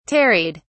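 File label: "tarried", British English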